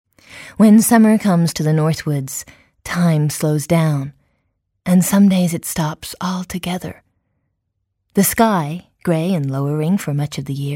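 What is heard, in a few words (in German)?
British Doku, englisch; sprecher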